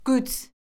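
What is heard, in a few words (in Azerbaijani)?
"qüds", Azerbaijani; Orfoqrafiya Lüğəti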